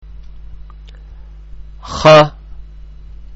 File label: Kha, arabic letter sounds